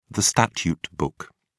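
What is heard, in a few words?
"statute book", British English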